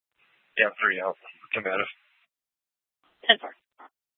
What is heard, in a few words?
PD Dispatch